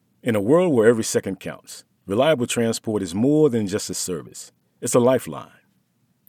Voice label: Male voice actor, in range from meditative/jazzy to excited, commanding and gritty